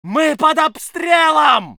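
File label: voice soviet infantry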